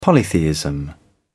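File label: "polytheism", British English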